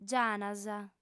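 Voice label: "gianas", Sardinian, female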